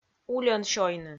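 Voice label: "ульӧн сёйны", Komi, female; kpv audio dict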